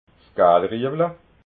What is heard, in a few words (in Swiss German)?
"gaalriewla", alsace